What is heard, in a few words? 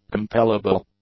"compellable", English; adjectives